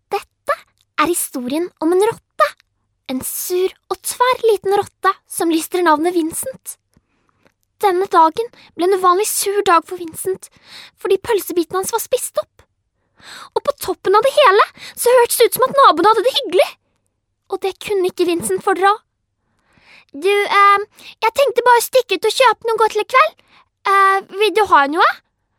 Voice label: norvegian girl

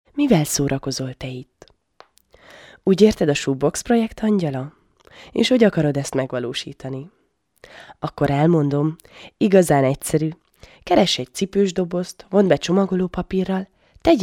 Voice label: hungarian female; dictor

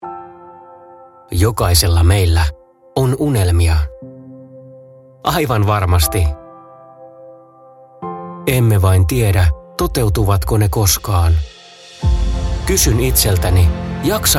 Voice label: inspiring emotional, fin; dictor

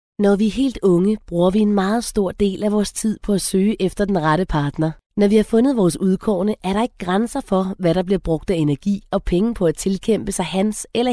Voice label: danish female; dictor

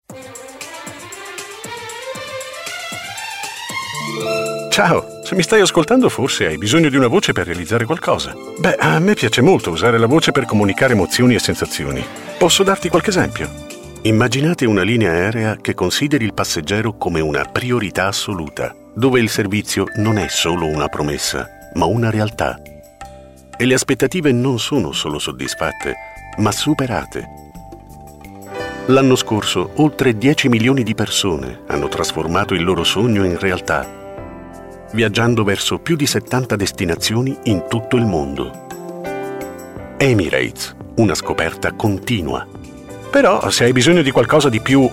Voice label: italian male; dictor

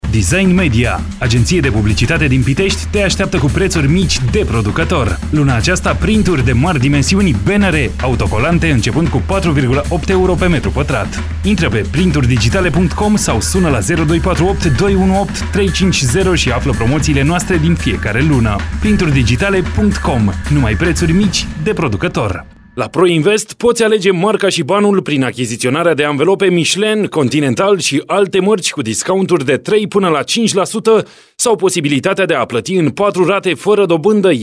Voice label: romanian male

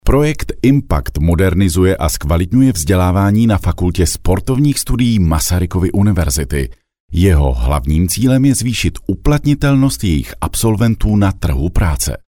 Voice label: czech male; dictor

